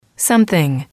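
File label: "something", English, female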